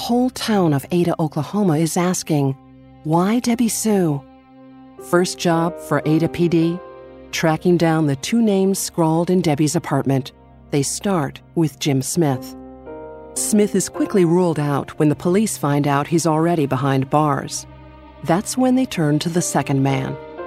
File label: Documentary Crime Scene Dramatic; Documentary Voice Over Narration; Debra Sue Carter